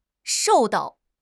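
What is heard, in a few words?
"shou4 dao4", Mandarin Chinese; HSK4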